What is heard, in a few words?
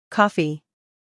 "coffee", English